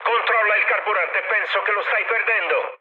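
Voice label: Italian tank damaged mood med, speech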